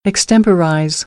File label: "extemporize", American English; ɚ - əɹ